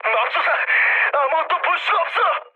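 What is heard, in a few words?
Korean searchlight mood med, speech; War Thunder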